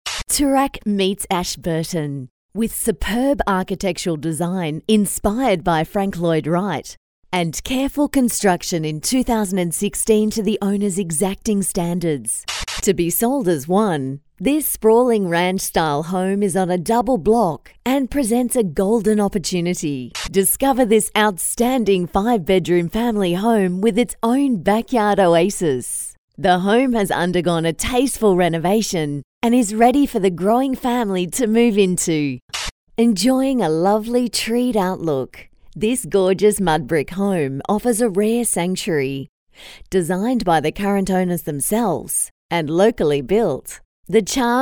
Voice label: female english australian real estate video compilation; Devine Voices